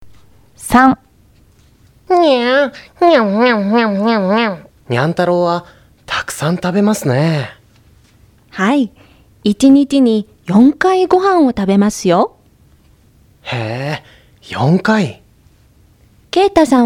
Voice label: Conversation; tobira